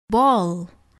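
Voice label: "ball", English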